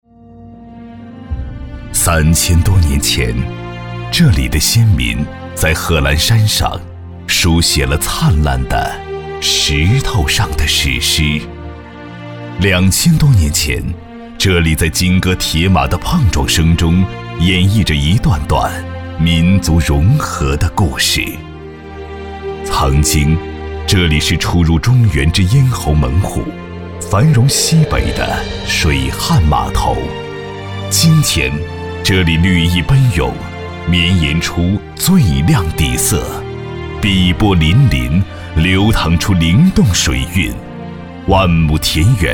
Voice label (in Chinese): 男 城市宣传 宁夏平罗